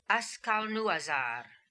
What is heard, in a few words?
"Askalnooazar", Beelzebub pronounciations; Fourth Way